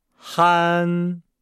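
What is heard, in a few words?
"han", Chinese; Nihao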